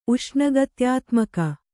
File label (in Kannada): "ಉಷ್ಣಗತ್ಯಾತ್ಮಕ", Kannada